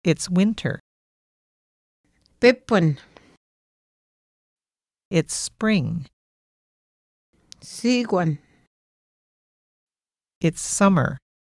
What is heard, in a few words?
Swampy Cree seasons; Linguistic Atlas